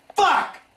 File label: "fuck", tourettes; Voice; expletives